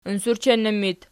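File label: "unsurchennu" locative, Archi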